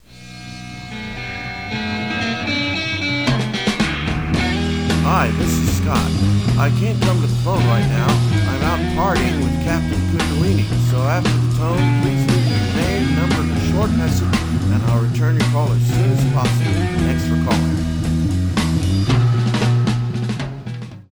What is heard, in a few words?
Answer Greeting